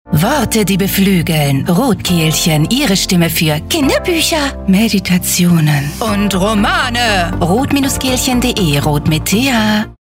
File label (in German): Funkspot; RothKehlchen